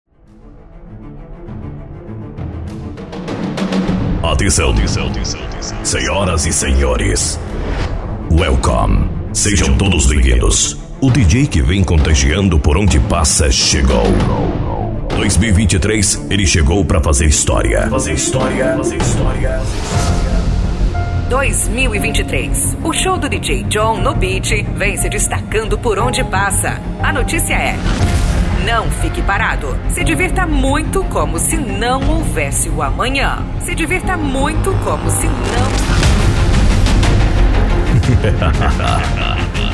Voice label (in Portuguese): locutor